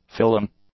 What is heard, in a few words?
"filling", English; nouns